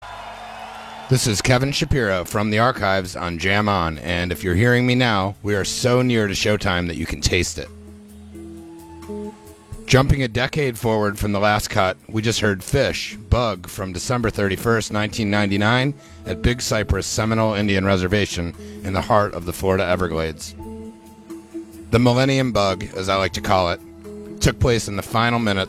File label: radio banter; SPAC